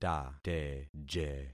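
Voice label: "tateje", concatenated syllables; Affixing experiment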